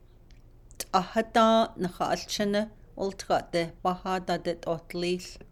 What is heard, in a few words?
navajo; in during; postposition -aa to